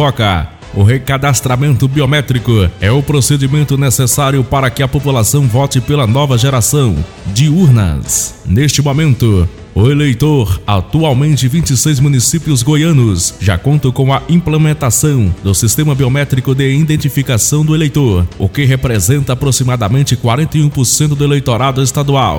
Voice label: locutor rádio; radio lisboa fm

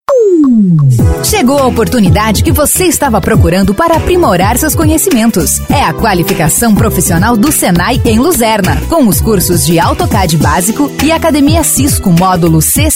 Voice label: vozes femininas

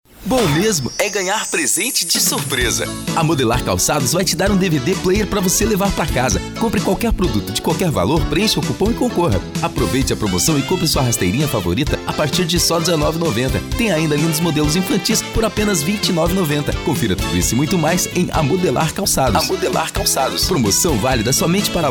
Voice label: locutor

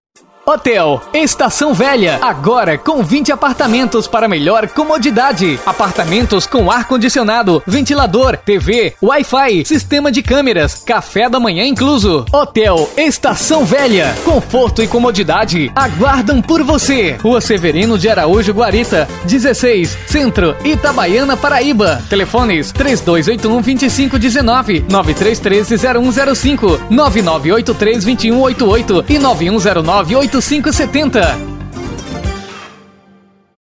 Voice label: comercial; hotel estacao velha

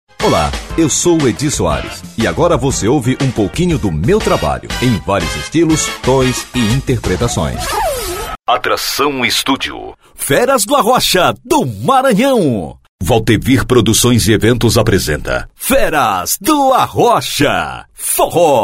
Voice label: locutor jovem padrão

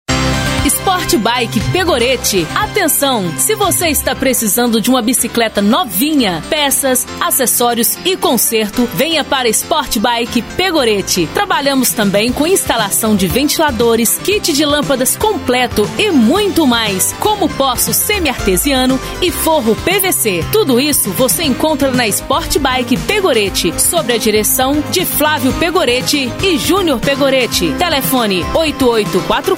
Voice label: locutora

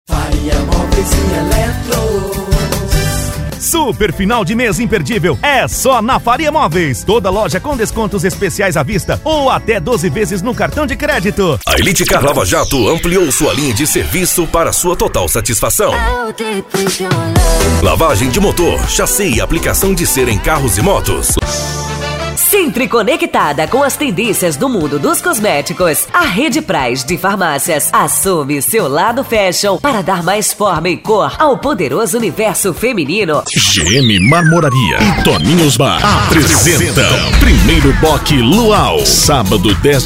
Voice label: locutores spots

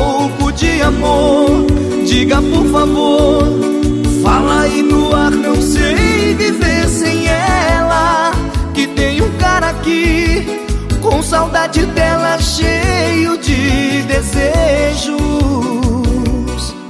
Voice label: amig locutor

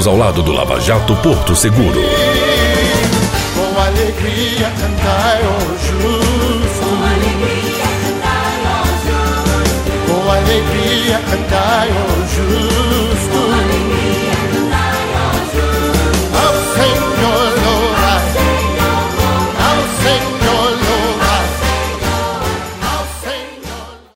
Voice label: chamada igreja voz

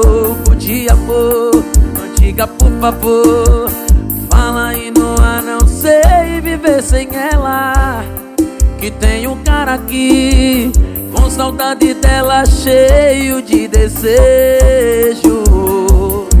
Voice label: locutor ao vivo

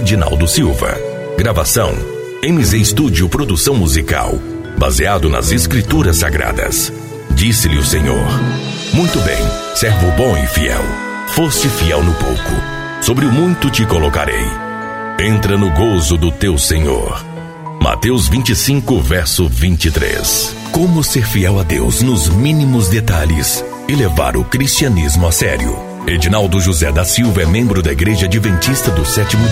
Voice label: audio book; capitilo 01 abertura; conceitos de fidelidade

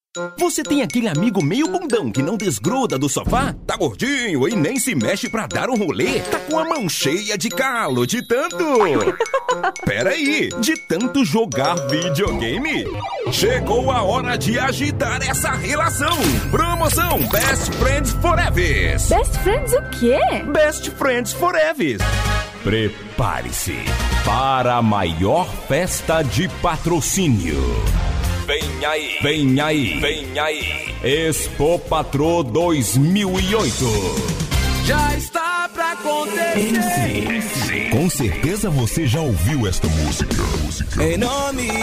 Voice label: locutor

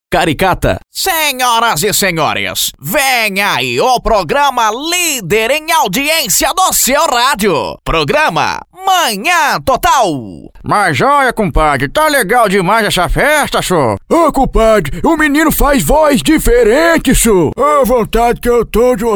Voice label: vozes caricatas

